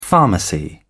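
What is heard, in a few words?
"pharmacy", English, British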